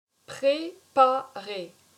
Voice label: "Preparer", french